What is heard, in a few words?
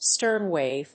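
"stern wave", English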